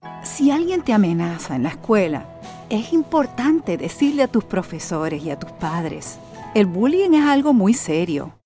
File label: Bullying PSA; Spanish - Puerto Rican Accent